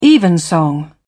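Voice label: "evensong", British English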